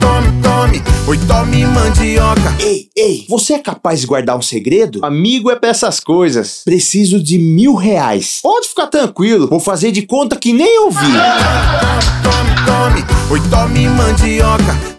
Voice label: spot piada; rei da mandioca

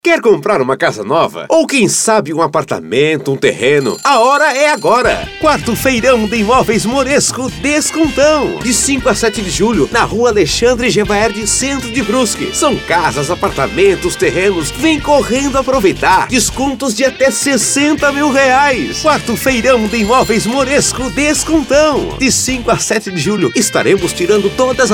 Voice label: spot feirao de imoveis; 4o feirao de imoveis moresco 2013

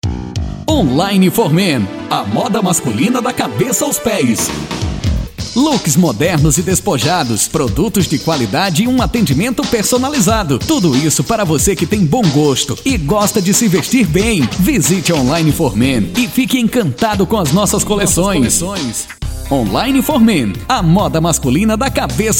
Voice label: institucional; sound music studio

